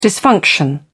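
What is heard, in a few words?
"dysfunction", British English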